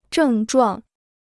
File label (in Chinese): "症状", Chinese